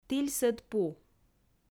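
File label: "тільсӧд пу", Komi, female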